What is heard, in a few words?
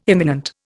"imminent", Indian